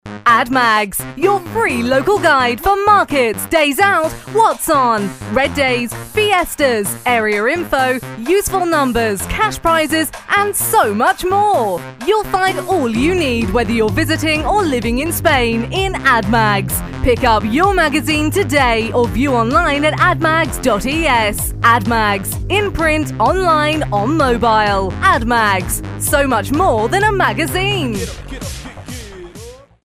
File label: advert; spectrum fm